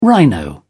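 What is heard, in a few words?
"rhino", British English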